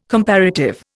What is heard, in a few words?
"comparative", Indian